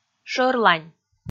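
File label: "шӧрлань", Komi, female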